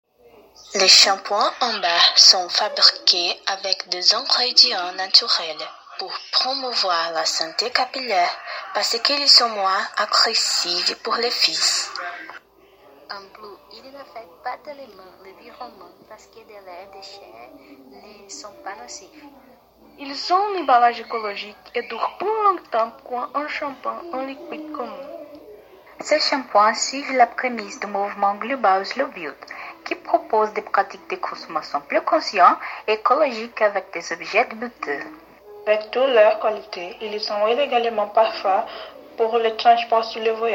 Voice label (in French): publicite correspondants